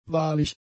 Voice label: "wahrlich", German; adjectives